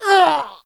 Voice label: human female die; wesnoth